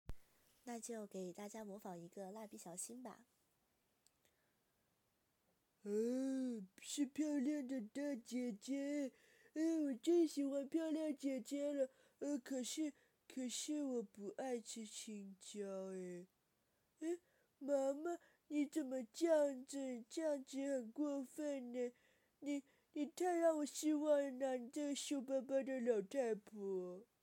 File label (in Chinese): 模仿蜡笔小新